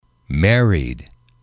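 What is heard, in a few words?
"married", English, male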